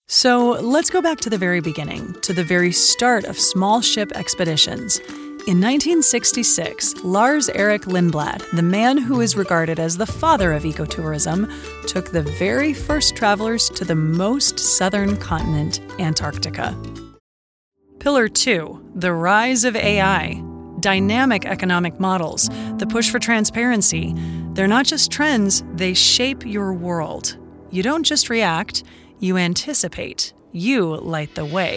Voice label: Voice Over E-Learning